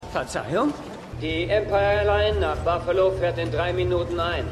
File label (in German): Mann Durchsage; God Friended Me